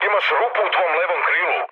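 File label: Serbian left wing mood med; speech; War Thunder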